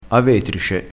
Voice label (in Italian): "avetrice", crespinese